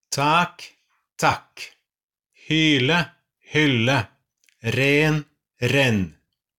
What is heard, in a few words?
long and short vowels, norwegian